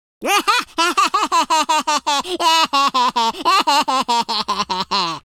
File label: happy, Teenage Angst; speech